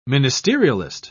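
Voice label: "ministerialist", British English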